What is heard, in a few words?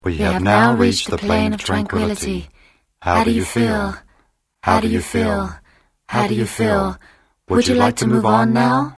two voices